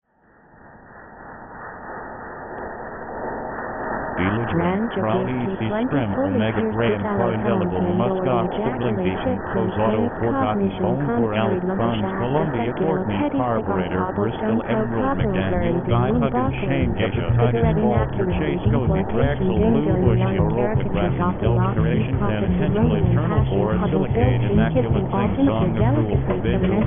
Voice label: babble